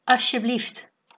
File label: please, dutch